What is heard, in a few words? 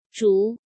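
"Zhú", Chinese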